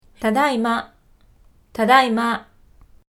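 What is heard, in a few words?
"tadaima", Japanese, Kansai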